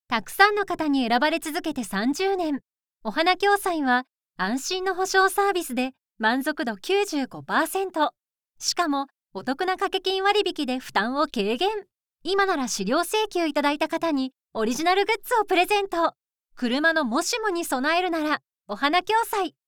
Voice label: female